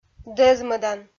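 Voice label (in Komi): "дӧзмӧдан", Komi, female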